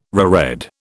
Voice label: "reread", British

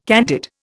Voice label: "candid", Indian

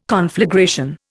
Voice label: "conflagration", Indian

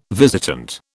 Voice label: "visitant", British

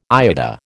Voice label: "IATA", American

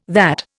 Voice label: "that", Indian

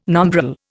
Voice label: "nombril", Indian

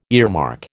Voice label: "earmark", American

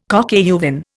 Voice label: "coq au vin", Indian

